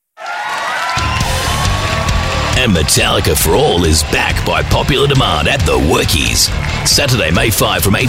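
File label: AU English Rock Read, Australian English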